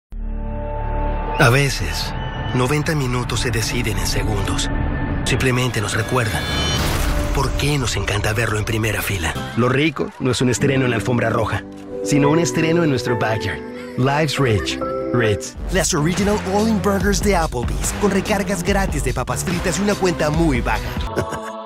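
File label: COMMERCIALS VO Spanish; COMMERCIALS VO DEMO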